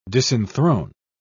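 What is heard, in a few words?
"disenthrone", British English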